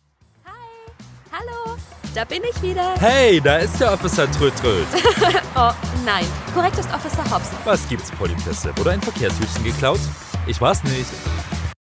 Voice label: german animation

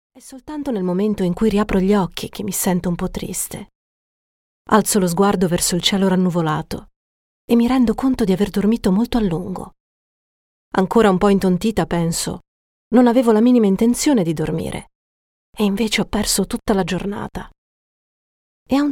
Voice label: italian audiobooks

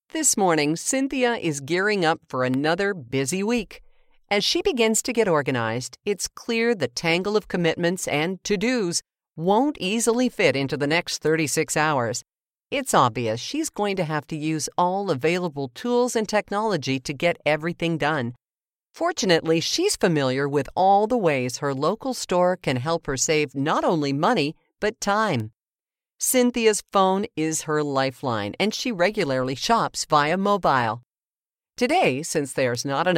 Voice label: English Canadian corporate videos

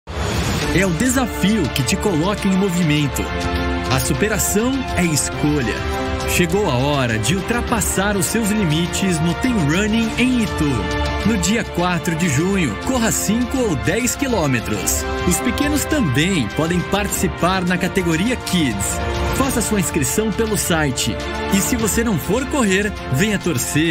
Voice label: portuguese brazilian tv shows, voice actors; Locutor, apresentador, comunicador; Programas de televisão

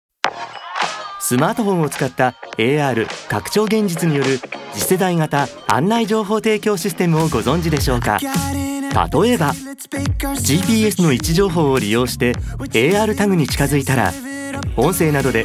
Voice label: japanese narration

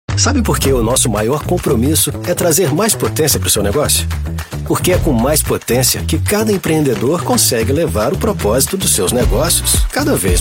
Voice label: portuguese brazilian commercial

